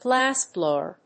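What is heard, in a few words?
"glassblower", English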